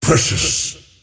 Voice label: lasthit pain; dota; earthshaker; arcana